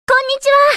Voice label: エモーション（挨拶）「こんにちわ」

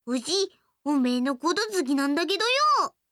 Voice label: 好きです 山形; 真美

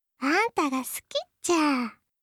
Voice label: 好きです 大分; 春香

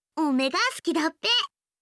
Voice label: 好きです 栃木; 真美

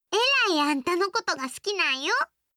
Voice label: 好きです 徳島; 亜美

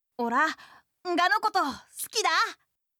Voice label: 好きです 岩手; 真

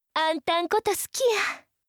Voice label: 好きです 和歌山; 貴音

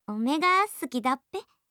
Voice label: 好きです 栃木; 律子

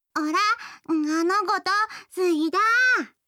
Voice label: 好きです 岩手; やよい

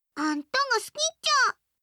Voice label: 好きです 大分; 真美

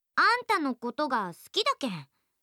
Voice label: 好きです 島根; 律子

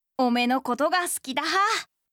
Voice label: 好きです, 福島; 真

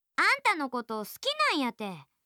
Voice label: 好きです 岐阜; 律子

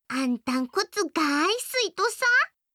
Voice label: 好きです, 佐賀; 真美

